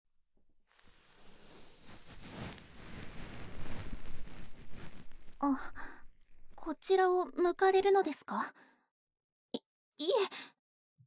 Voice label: 【右】こしょこしょ囁き(始), ASMR; 耳かき小分けトラック

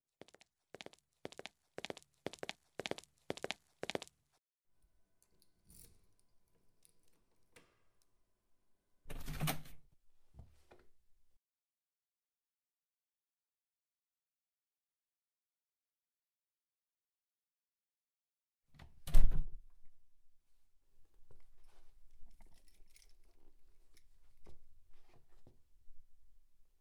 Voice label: 撮影前のトークタイム, ASMR

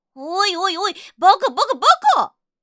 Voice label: おいおいおい！バカバカバカ（かわいい）; リクエストシステムボイス

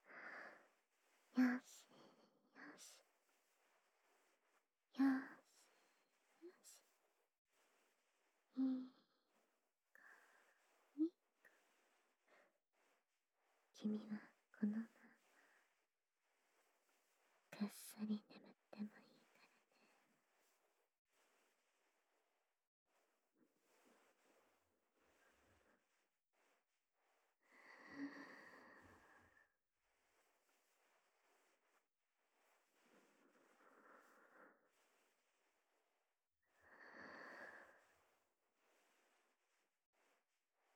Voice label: 添い寝音声

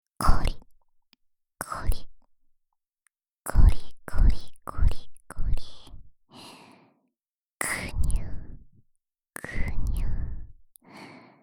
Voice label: 耳元射精煽り R (右耳); 書記

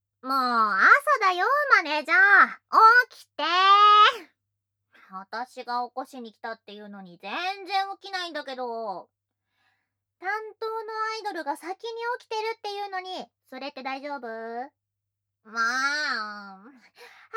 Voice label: 急かしボイス SEなし; アラームボイス

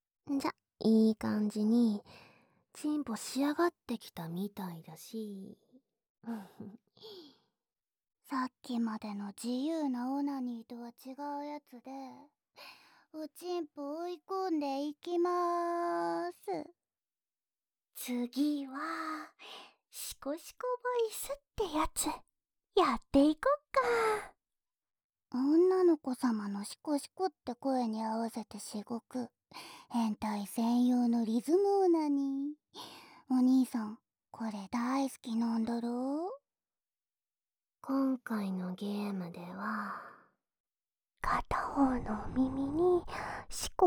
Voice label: シコシコボイス, ASMR

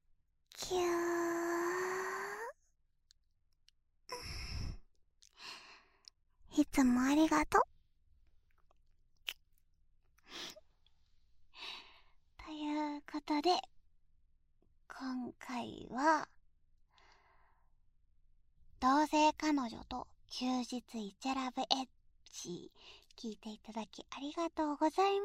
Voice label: フリートーク; SEなし

